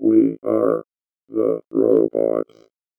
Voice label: VOICEFX ELCTR 140; Techno2

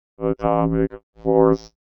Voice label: VOICEFX ELCTR 140; Techno2

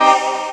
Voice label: VOX, VOCODER

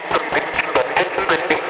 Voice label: TECHNO VOCALS 140; Techno2

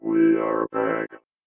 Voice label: VOICEFX ELCTR 140; Techno2

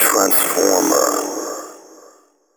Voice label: techno male 140; Vocals

